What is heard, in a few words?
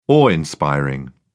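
"awe-inspiring", British English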